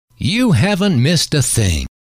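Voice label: you Haven T Missed A Thing, male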